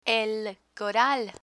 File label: "coral", Spanish; spanish verbs; learn spanish